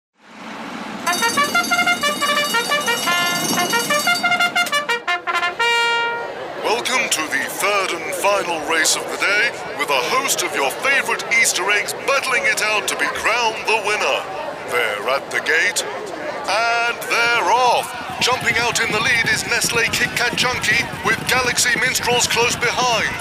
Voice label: commentator; Cadburys